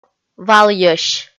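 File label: "валйӧсь", Komi, female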